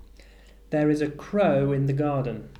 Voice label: "crow", English